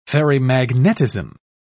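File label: "ferrimagnetism", English, British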